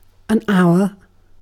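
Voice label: "hour", British English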